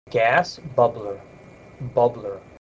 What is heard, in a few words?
"gas bubbler", voice